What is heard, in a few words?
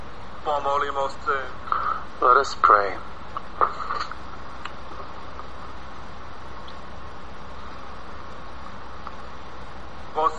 Prayer and Announcement